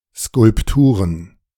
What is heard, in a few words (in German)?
Skulpturen, De